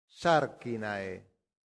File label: "sarcinae", latin; santillana